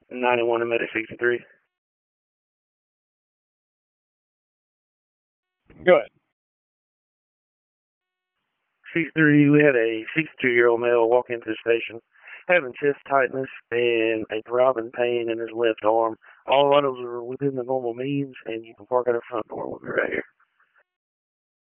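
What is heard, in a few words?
Public Safety Tactical, p25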